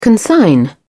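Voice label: "consign", English, British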